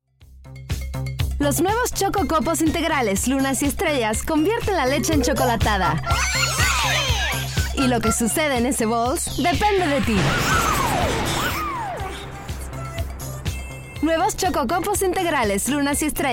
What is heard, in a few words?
Spanish LATAM